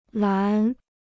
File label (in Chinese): "栏", Chinese